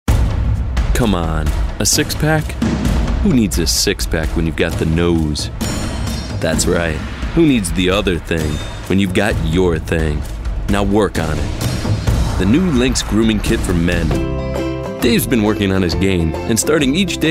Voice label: Commercial Showreel